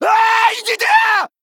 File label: Male Human Attack